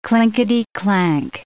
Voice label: "clinkety-clank", English

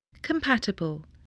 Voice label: "compatible", english

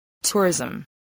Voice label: "tourism", voice

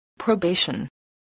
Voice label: "probation", voice, spelling

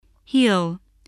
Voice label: "heel", English, spelling

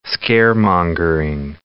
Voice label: "scaremongering", English

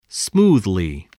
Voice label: "smoothly", voice, spelling

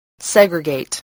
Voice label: "segregate", english spelling

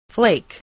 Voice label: "flake", spelling

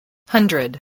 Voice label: "hundred", voice spelling

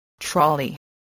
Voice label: "trolley", voice

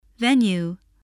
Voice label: "venue", English

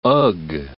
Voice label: "ugh", English

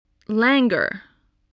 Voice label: "languor", English

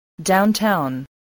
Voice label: "downtown", spelling

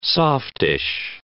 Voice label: "softish", English

